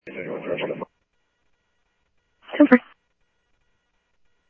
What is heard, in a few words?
Phoenix PD, scanner audio; ThompsonPeakHigh; PHOENIX PD-200 A